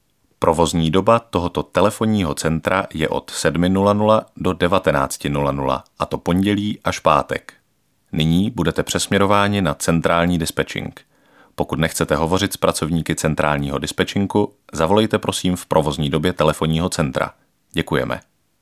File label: male Czech IVR